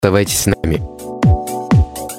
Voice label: direct sound mic